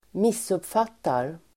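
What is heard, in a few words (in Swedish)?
"missuppfattar", Swedish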